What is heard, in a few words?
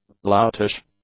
"loutish", English; adjectives